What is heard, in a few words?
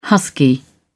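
"husky", British English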